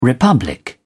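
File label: "republic", British English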